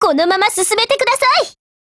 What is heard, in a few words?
ATTACK JP